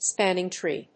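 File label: "spanning tree", English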